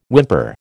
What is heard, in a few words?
"whimper", American